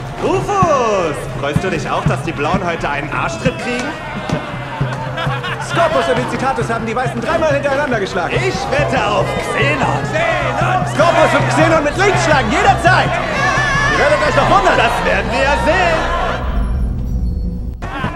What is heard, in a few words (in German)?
Lip-Sync (Synchron), German; TATD - Those About to Die - Rolle: Sapo (Folge 1)